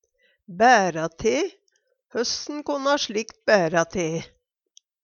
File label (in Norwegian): "bæra te", Numedalsmål; skje, gå til; Høssen konna slikt bæra te?